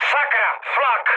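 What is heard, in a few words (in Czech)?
Czech damaged anti-aircraft, mood medium; speech; War Thunder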